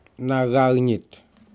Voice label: fish harlequin Sweetlips, Aneityum